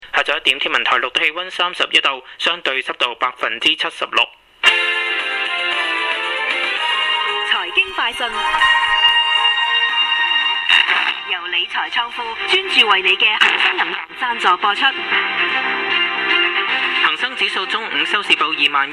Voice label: chinese radio

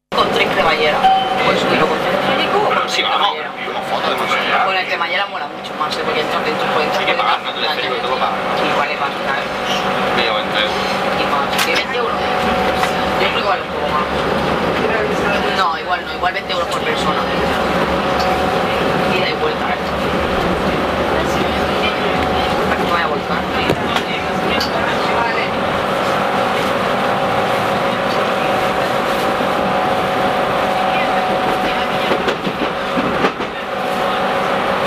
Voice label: train punks argue, Spanish street recordings; to barcelona

